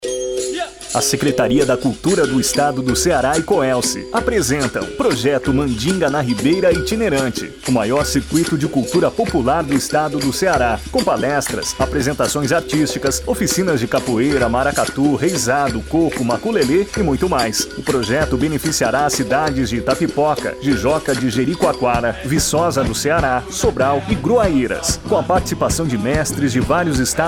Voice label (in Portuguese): spot